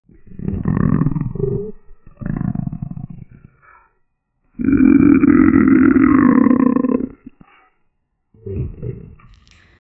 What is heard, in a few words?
curious monster talking